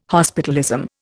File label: "hospitalism", Indian